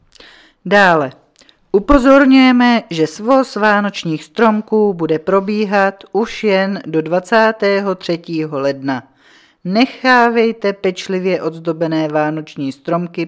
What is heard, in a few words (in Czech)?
Svoz stromků, rozhlas